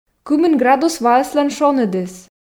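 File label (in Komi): кымын градус ваыслӧн шоныдыс, Komi, female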